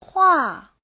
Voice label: "hua4", chinese

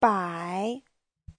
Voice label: "bai", Chinese; Giáo trình Hán ngữ

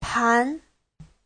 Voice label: "pán", Chinese

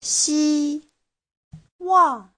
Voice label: "xi1wang4", Chinese

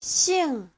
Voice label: "xing4", Chinese

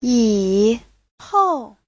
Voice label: "yi3 hou4", Chinese; Giáo trình Hán ngữ

